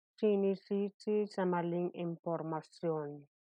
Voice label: Tagalog; blamed on misinformation - sinisisi sa maling impormasyon